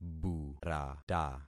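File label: "burata", concatenated syllables; Affixing experiment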